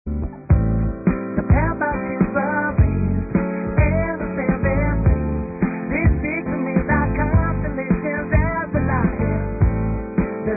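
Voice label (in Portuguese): ANIVERSARIANTE Masculino, Telemensagens; Dia Dos namorados; Telemensagens 2017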